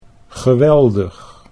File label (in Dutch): "geweldig", Dutch